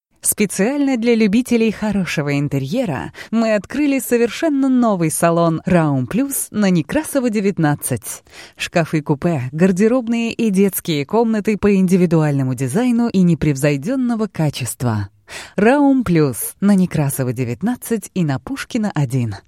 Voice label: Russian, F, IVR

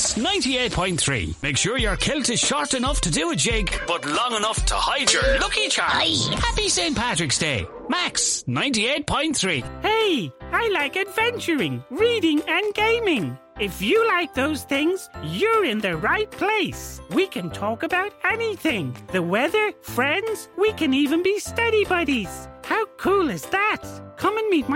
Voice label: English Irish, M, Character, accent of ireland

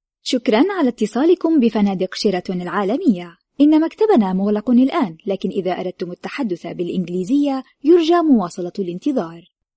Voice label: Arabic F IVR

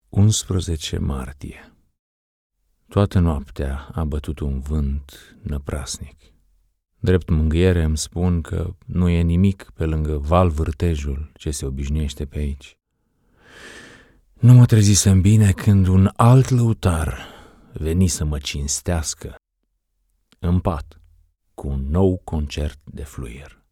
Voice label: Romanian, M, Audiobook

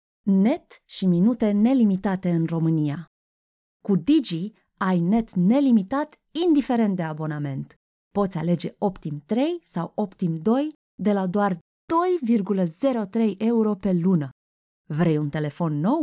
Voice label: Romanian F Commercial

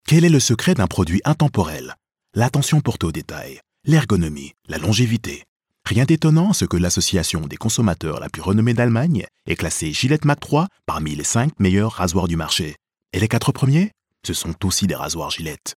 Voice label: French M Medical

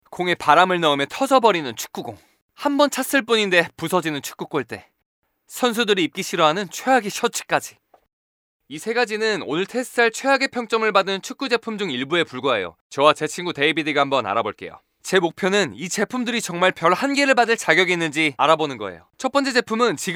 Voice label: Korean M Dubbing

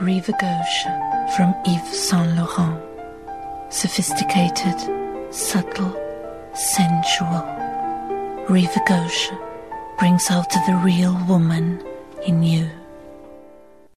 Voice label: Portuguese English, F, Ad, accent of portugal

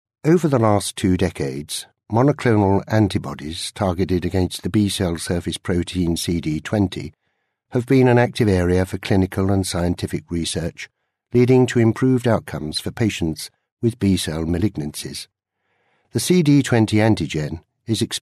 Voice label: English UK M Technical Medical

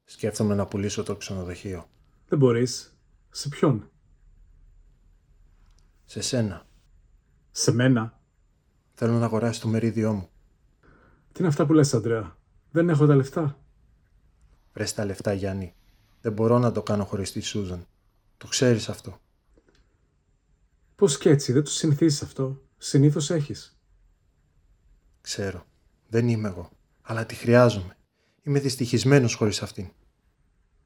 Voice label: Greek, M, Narration

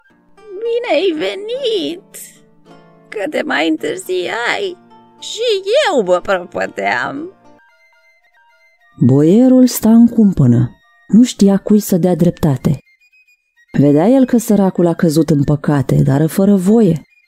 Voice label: Romanian F Character

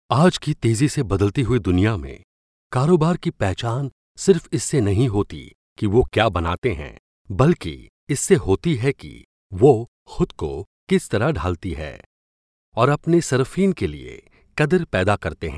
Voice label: Urdu, M, Corporate

